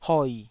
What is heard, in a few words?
"hoi3", taiwanese syllable